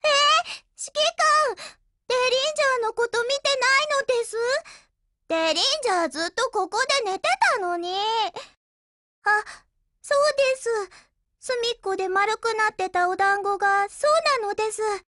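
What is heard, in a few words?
HELLO Japanese, Voice; GF Alarm; Derringer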